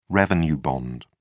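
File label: "revenue bond", British English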